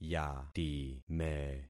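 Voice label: "yatime", concatenated syllables; Affixing experiment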